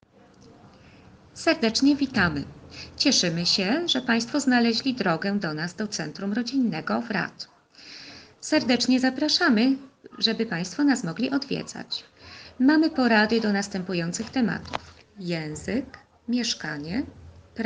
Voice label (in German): polnisch; rather familienzentrum